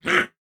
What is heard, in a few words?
Attack, Corpus Venus Male; Dialog; Barks; Battle; Warframe; CorpusVenusMaleA